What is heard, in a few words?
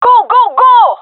request move, gendarmerie fem; vo; agents